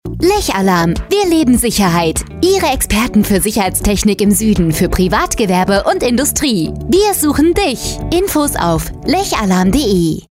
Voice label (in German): funkspot; lech alarm gmbh